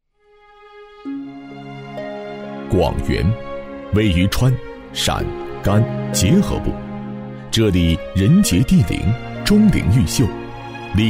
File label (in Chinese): 汇报片配音; 文明之花; 广元绽放3